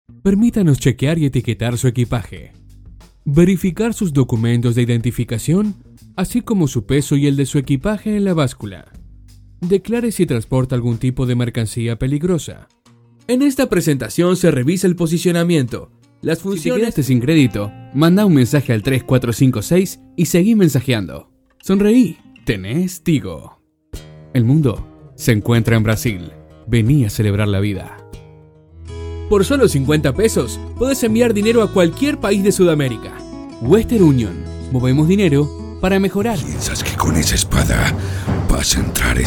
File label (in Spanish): Locutor Argentino; argentina